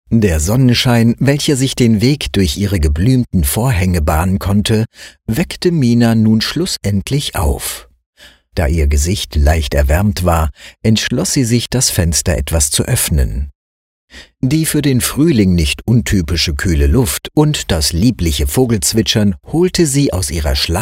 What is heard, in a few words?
german audiobooks; professioneller zweisprachiger Sprecher